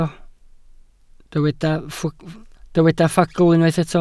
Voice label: "ffagl" Welsh

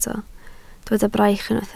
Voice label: "braich", Welsh

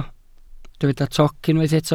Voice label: "toc", Welsh

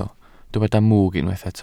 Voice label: "mwg", Welsh

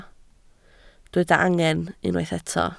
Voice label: "angen", Welsh

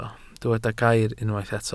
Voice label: "gair", Welsh

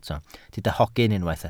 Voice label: "hogyn" Welsh

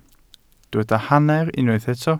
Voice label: "hanner", Welsh

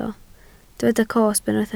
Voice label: "cosb", Welsh

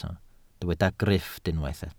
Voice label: "grifft", Welsh

